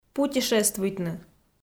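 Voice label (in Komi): "путешествуйтны", Komi, female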